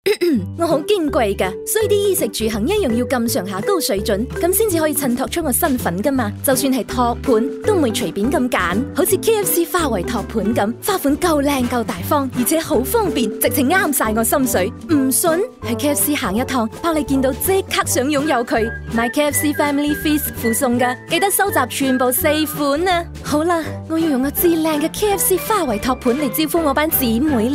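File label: Elegant Robot Cantonese; Fmix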